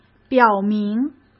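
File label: "表明", Mandarin Chinese; chinese-flashcards; hsk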